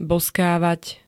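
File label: "bozkávať", Slovak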